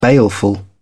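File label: "baleful", British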